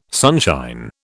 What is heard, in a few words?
"sunshine", British